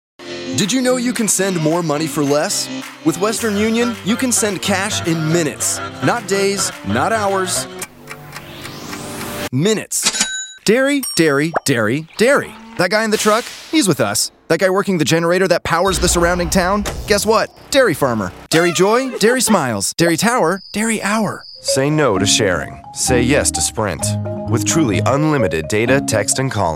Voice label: Voiceover, Commercial, Men; Chicago